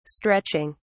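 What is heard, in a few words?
"stretching", English, British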